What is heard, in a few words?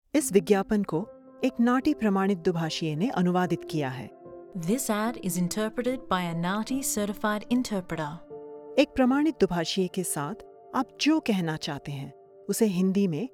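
Hindi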